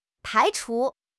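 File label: "排除", Mandarin Chinese; HSK6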